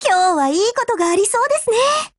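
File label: HELLO, Japanese; GF Alarm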